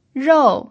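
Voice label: "rou" Mandarin Chinese, fourth tone, pinyin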